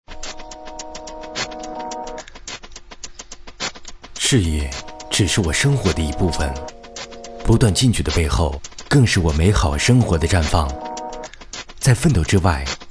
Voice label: boy, mobile, guanggao; Chinese